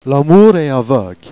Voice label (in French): l'amour est aveugl'ye, Jèrriais